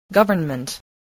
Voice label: "government", spelling

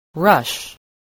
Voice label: "rush", English spelling

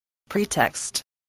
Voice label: "pretext", voice, spelling

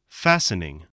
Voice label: "fastening", voice

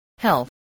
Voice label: "health", English, spelling

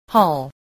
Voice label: "hall", spelling

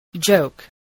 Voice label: "joke", English

